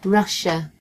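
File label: "russia", British English; gcompris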